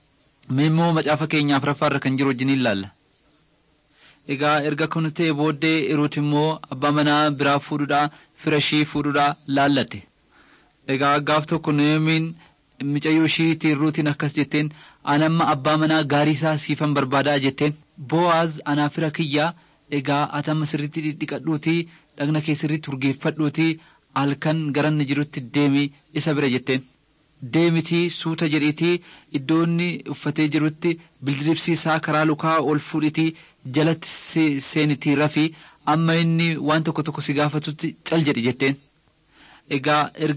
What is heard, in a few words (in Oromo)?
oromo wellega; servants of god